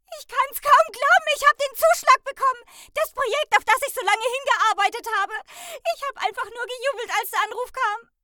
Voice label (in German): glückliche Person